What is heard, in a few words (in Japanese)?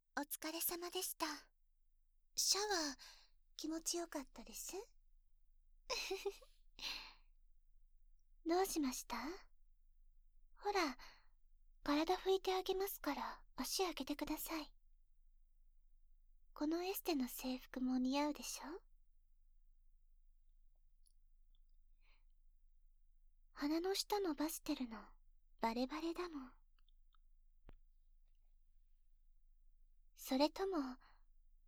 SEなし, ASMR; 誘惑アロマリンパマッサージで淫猥な気分に…♪